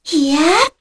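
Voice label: Vox Casting, Korean; Kara; kingsraid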